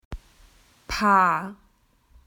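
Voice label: "pair", Australian English; PictureDictionary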